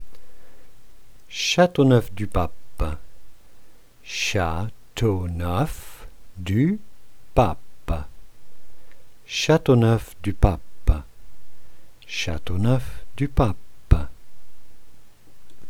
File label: how to pronounce say chateauneuf du pape french pronunciation